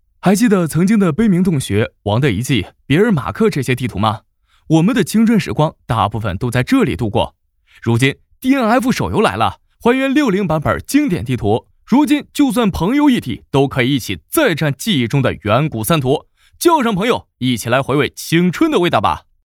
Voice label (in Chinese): 信息流